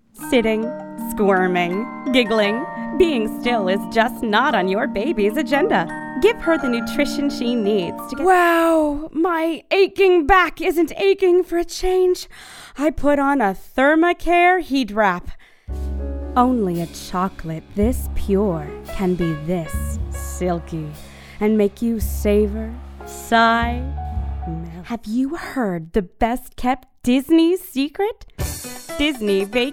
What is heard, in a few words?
Commercial VO